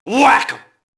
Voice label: mobster alert group; Max Payne

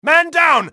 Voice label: man down, english male; XBOX; enemy

